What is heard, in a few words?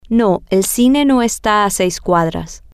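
Spanish, female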